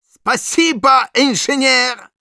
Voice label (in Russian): thanks for the teleporter, ru; Medic